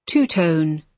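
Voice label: "two tone", British English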